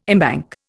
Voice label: "embank", Indian